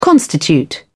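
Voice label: "constitute", English, British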